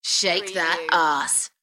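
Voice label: British female Shake that arse; beanstalk audio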